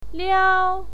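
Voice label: "liao", pinyin, first tone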